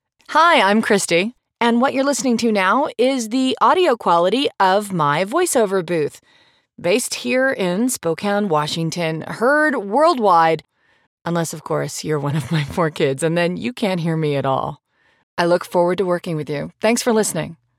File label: Booth Sample